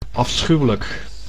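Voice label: "afschuwelijk", Dutch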